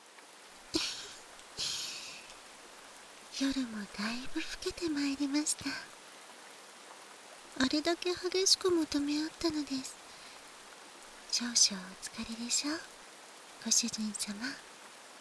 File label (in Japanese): 添い寝（バイノーラルマイク 効果音あり大きめ）